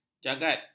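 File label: "jagat", Malay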